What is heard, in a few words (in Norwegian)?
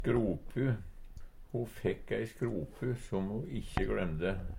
"skropu", Numedalsmål; Substantiv hokjønn